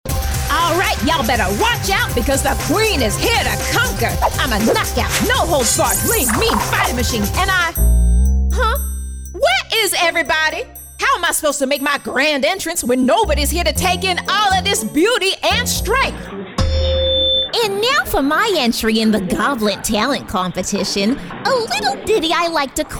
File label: Animation